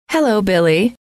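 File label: "hello", female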